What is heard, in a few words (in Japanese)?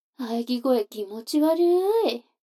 女の子 台詞, ASMR